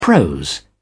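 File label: "prose", British English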